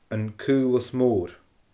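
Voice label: "An Caolas Mòr", Scottish Gaelic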